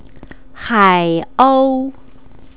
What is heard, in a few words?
"haiou", Chinese